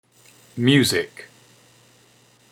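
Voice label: "music" English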